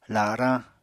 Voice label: "larañ", Apatani

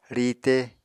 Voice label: "riite", Apatani

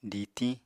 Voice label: "ditiñ", Apatani

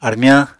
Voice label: "armyañ", Apatani

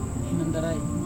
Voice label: "hinontarai", person, Serewen

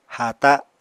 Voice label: "haata", Apatani

